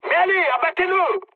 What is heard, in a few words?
attack position mood med, speech fr; War Thunder